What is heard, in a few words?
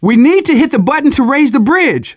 hitting bridge button, manager, survivor, voice; Left 4 Dead 2; left4dead2 dlc2